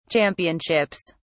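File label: "championships", British English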